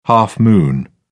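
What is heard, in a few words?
"half-moon", British English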